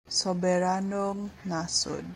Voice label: Cebuano